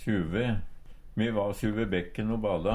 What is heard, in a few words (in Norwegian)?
suve, Numedalsmål; sør ved; Adverb